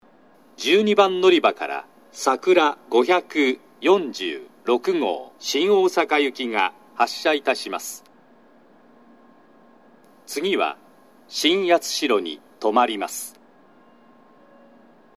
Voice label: 12番のりば発車放送; さくら546号 新大阪行き; shin minamata

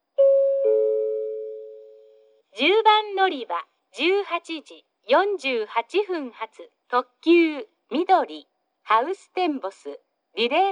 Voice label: 10番のりば案内放送; みどり・ハウステンボス・リレーかもめ50号 博多行き; takeo onsen

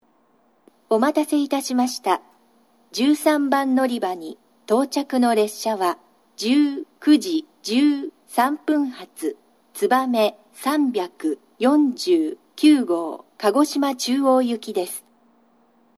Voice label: 新水俣, 13番のりば接近放送; つばめ349号 鹿児島中央行き; shin minamata

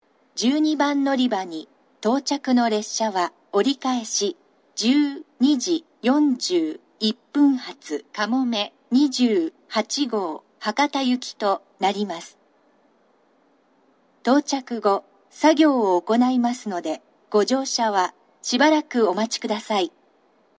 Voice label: 長崎 西九州新幹線ホーム 自動放送, 12番のりば接近放送; かもめ28号 博多行き

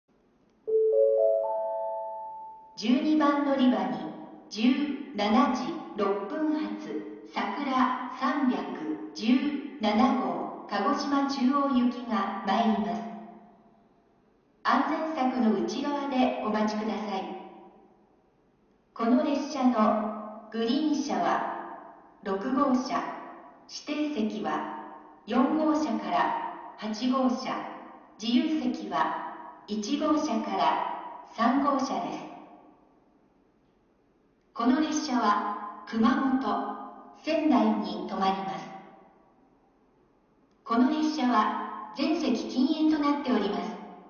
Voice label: 12番のりば接近予告放送, 新幹線ホーム; 筑後船小屋; 12番のりば接近予告放送（さくら317号 鹿児島中央行き）

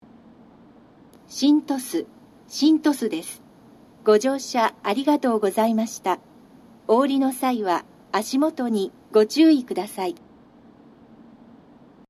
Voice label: 到着放送 女声, 新幹線ホーム; 新鳥栖

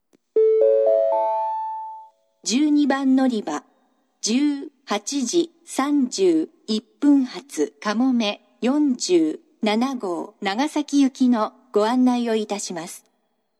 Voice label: 嬉野温泉, 12番のりば案内放送; かもめ47号 長崎行き; 武雄温泉

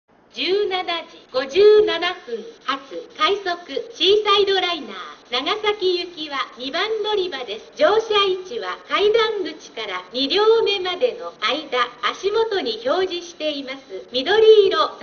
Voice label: 2番のりば案内放送, 冒頭の「ピンポーン」がありません。; ハウステンボス; 快速シーサイドライナー 長崎行き; 初期型の放送では、当駅だけ案内放送が導入されていました。; クリアホーン

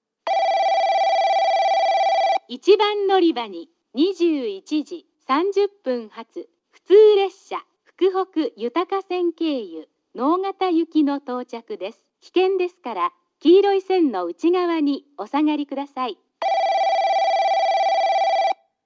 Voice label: 1番のりば接近放送（普通 直方行き）

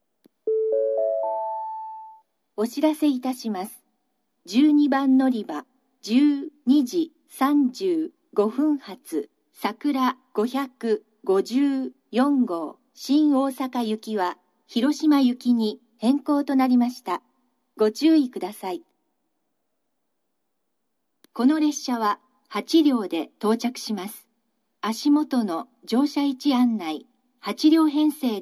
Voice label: のりば案内放送, 新幹線ホーム; 12番のりば案内放送（さくら554号 広島行き）※停車駅が新山口まで・新大阪行きから広島行きに変更; ⇒通常は聞けない「新山口に」のパーツが聞けます。; kumamoto

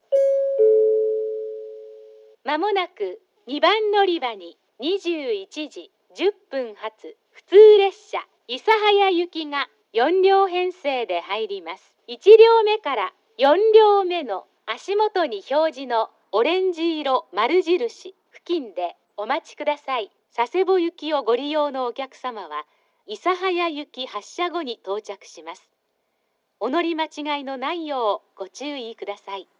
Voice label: 2番のりば予告放送; ハウステンボス; 2番のりば予告放送（普通 諫早行き）; ※諫早行きと佐世保行きの乗り間違いに注意

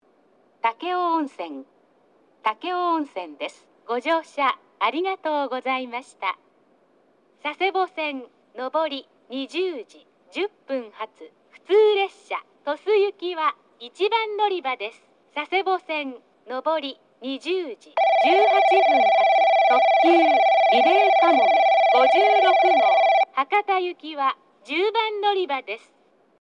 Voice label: 10番のりば 到着放送

